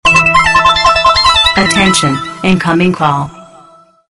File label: female attention incoming call